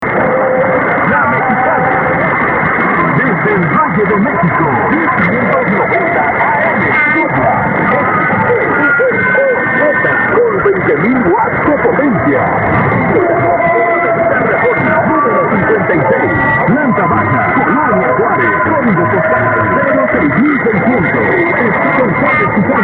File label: radio mexicano; xevoz